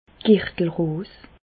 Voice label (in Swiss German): "girtelross", Bas Rhin; medecine; zona